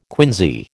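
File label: "quinsy", American English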